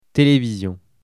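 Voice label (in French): "television", French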